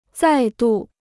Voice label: "再度", Chinese

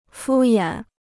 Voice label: "敷衍", Chinese

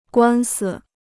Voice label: "官司", Chinese

